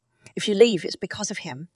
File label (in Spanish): Voice Acting es